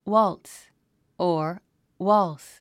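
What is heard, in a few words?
"waltz", English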